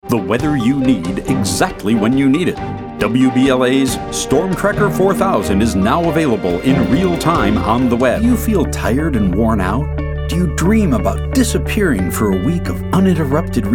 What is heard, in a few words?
Commercial